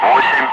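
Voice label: "5", spoken; Ka-50; Wingman; Digits; DCS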